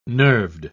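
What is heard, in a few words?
"nerved", British English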